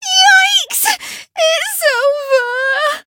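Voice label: Voice encounter fear; yuna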